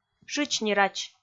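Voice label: "шыч ни рач", Komi, female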